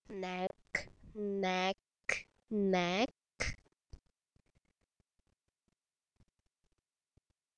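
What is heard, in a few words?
"neck", spoken word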